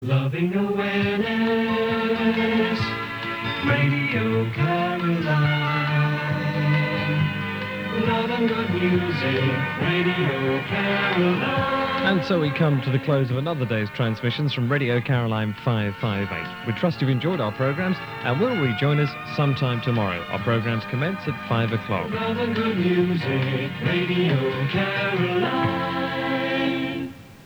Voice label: daily closing announcement

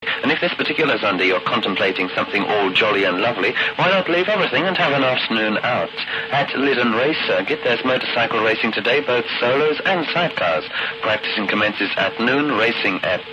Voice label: Radio commercial racing circuit; KING Radio

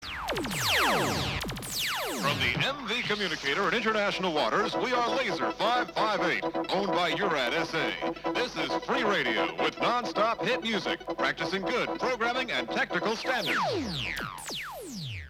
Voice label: announcement; Laser 558 owned by Eurad SA

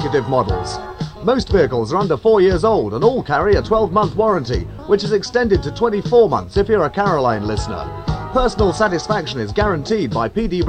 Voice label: commercial, Cars; P D Brown

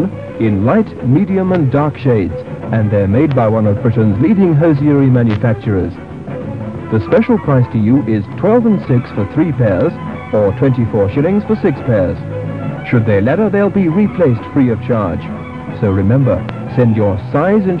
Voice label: Radio 390 commercial, nylon stocking offer

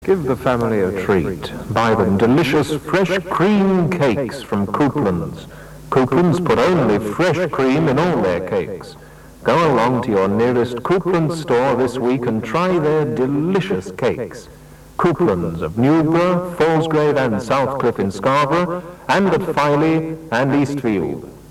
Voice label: advert; R 270; Couplands

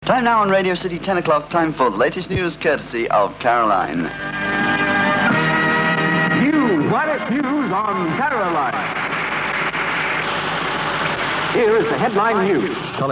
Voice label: News; Caroline; Radio City

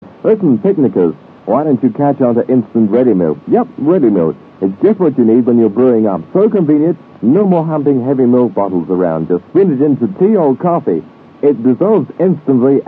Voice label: commercial Ready Milk

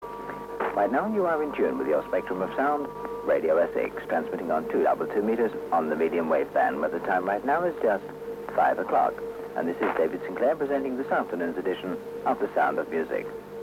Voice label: ID announcement and time check; R Essex

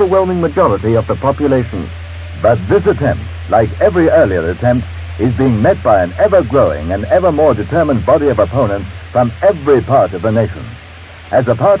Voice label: commercial - Free Radio Movement; Broadside

